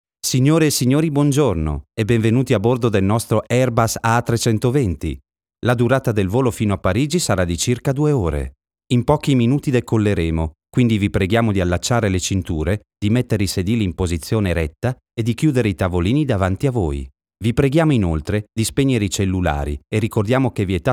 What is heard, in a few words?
italian announcements